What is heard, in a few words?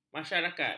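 "masyarakat", Malay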